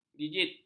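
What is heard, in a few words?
"jijit", Malay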